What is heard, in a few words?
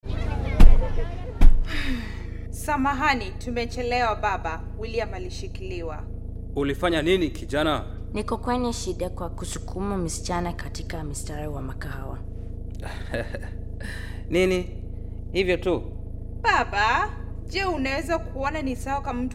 Radio Swahili; CALD